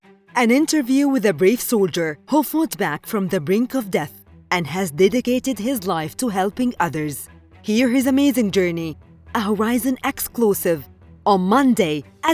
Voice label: arabic promos